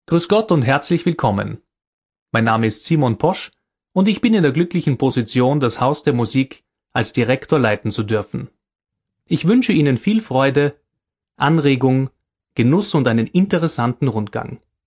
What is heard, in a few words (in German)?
Begrüßung Deutsch